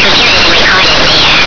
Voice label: FEMALE COMPUTER